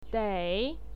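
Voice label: "dei", Mandarin Chinese, neutral tone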